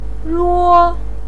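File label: "ruo", Mandarin Chinese, first tone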